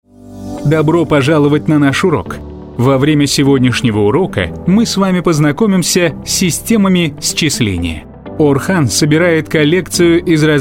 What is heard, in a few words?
russian voice over male commercial